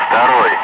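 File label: Russian calm; Ka-50; Wingman; DCS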